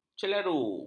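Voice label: "celaru", Malay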